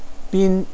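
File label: "bin1", Mandarin Chinese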